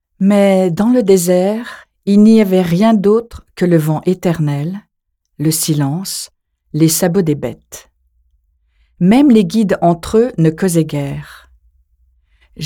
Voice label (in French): Livre Audio